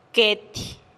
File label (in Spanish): "ketih" no, teotitlan